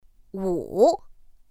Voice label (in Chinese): "wǔ", pinyin; pinyin2; pinyinji